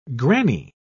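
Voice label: "grannie", English, British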